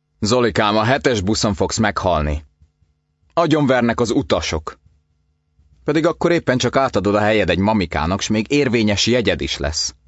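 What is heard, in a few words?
Hungarian Narration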